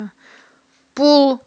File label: "ппул", Aghul